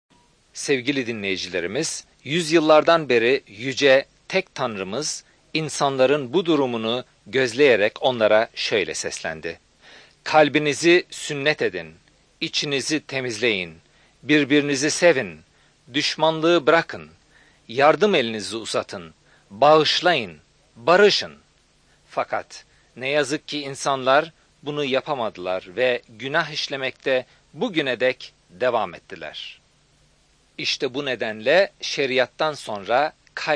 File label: words of life, turkish